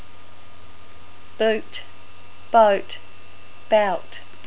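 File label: "boot", New Zealand English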